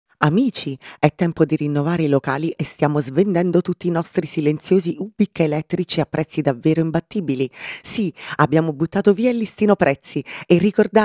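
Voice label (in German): Italian, Kommentar Neutral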